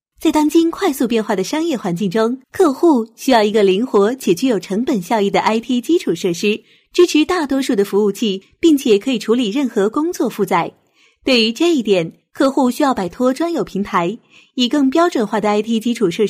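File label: Chinese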